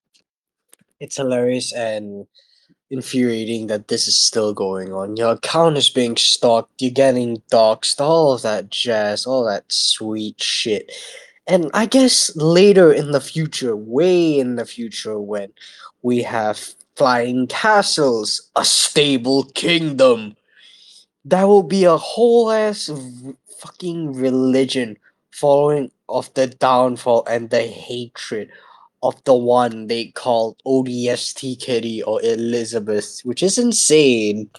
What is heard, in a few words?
voice message